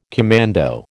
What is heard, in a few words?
"commando", American